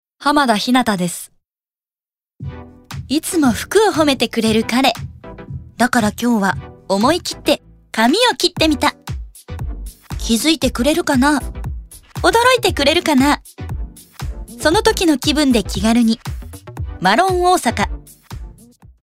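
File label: commercial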